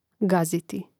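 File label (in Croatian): "gaziti", hrvatski; mreznik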